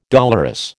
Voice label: "dolorous", American

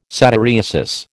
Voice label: "satyriasis", American

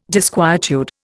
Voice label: "disquietude", Indian

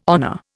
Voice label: "honour", Indian

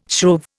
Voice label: "shrove", Indian

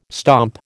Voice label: "stomp", American

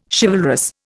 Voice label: "chivalrous", Indian

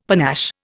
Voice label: "panache", Indian; Dictionary

